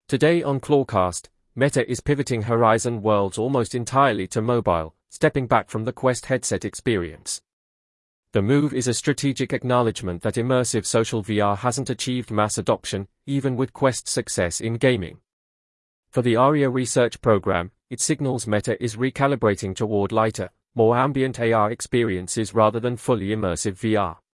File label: UK